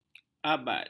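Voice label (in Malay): "abad", Malay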